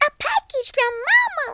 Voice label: "drop", Southern Belle; speech

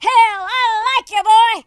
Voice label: flawless, Drill Sergeant, speech

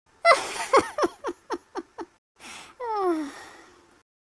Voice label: sad, speech; Biggles

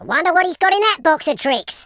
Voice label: drop, Footy Fan speech

